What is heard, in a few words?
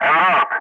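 "enroute", English; Ka-50; Wingman; Messages; Speech; DCS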